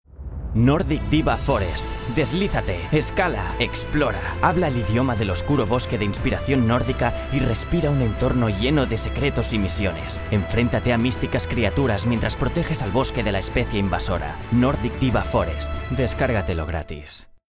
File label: male spanish game comercial; ideasonora